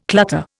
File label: "clutter", Indian